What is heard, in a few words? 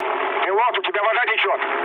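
Russian damaged water mood med, speech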